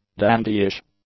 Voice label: "dandyish", English; adjectives